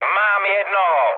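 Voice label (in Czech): Czech player killed enemy, mood medium; speech; War Thunder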